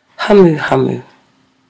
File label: "hamuhamu", Māori